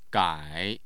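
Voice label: "gai", Mandarin Chinese, tone 3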